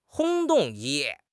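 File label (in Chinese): "轰动", Chinese; HSK6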